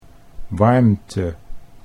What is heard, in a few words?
"warmte", Dutch